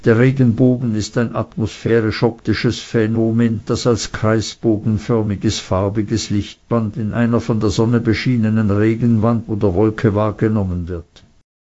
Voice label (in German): speaker, de DE; piper-voices